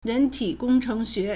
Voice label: "ren2 ti3 gong1 cheng2 xue3", Chinese